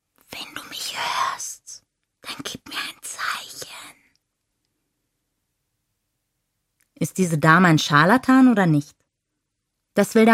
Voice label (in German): Audio Trailer